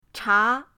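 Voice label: "cha", Mandarin pinyin, second tone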